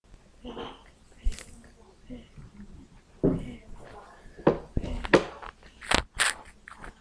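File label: "pick", spoken word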